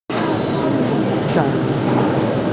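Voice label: "si1kao3", Mandarin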